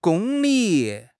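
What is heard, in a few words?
"gong1 li3", Mandarin Chinese; HSK; HSK4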